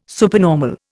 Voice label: "supernormal", Indian